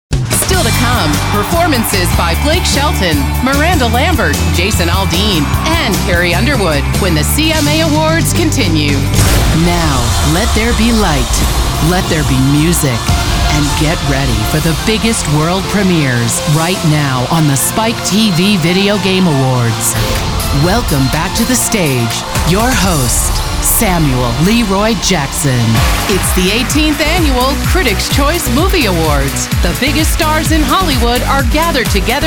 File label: Live Announcer